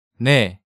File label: "nae", Korean